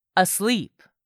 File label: "ASLEEP", english; 3000 words